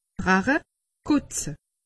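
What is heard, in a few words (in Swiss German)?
"kotze", Alsatian; medecine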